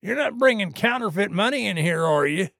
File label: trader male dislike greeting